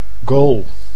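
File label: "goal", Dutch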